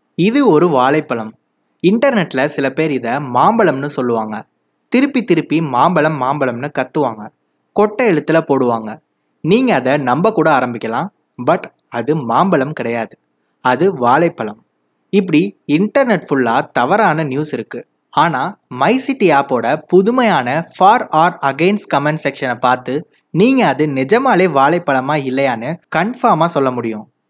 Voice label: Tamil, Male